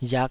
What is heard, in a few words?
"riak5", taiwanese